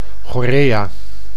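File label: "chorea", Dutch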